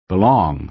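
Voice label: "belong", American English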